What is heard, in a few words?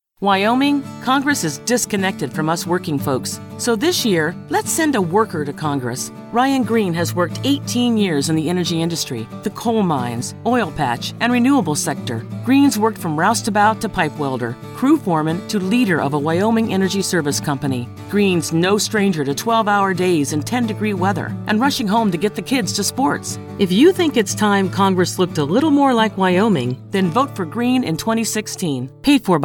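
Democrat Voiceover, Female; Political DEMOCRAT Voices English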